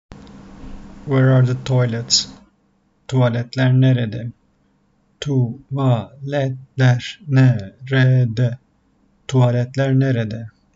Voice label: where are toilets, Turkish; the talking turkish phrasebook

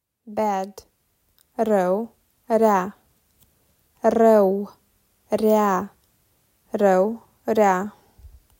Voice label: "Bad", Romanian

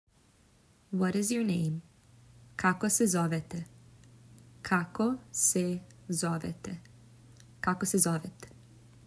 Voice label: "What's your name", Serbian

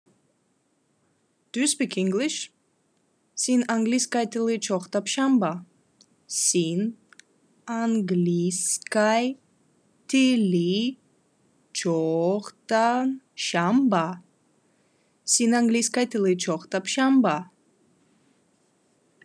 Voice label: Khakas Do you speak English

